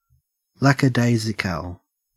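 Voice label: "lackadaisical", Australian English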